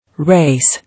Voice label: "Race", English

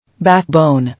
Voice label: "Backbone", English

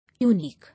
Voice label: "Unique", English

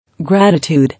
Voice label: "Gratitude", English

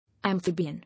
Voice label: "Amphibian", English

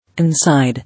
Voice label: "Inside", English

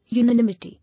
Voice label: "Unanimity", English

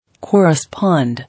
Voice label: "Correspond", English

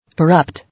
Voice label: "Erupt", English

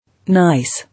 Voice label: "Nice", English

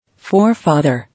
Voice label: "Forefather", English

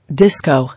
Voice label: "Disco", English

